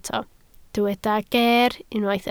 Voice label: "geer", Welsh

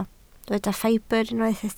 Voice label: "ffibr", Welsh

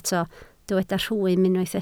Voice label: "rhwym", Welsh

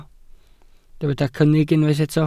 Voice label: "cynnig", welsh

